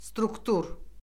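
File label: "struktur", Azerbaijani; Orfoqrafiya Lüğəti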